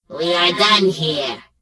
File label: Gnome Female Farewell; Death Knight